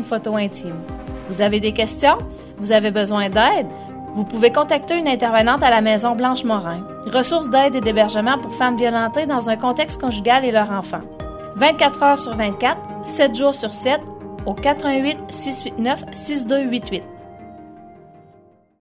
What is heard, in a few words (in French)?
Message adolescent